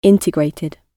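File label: "integrated", British English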